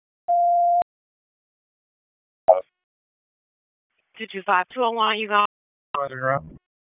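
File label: Raleigh Police Northeast District Dispatch, p25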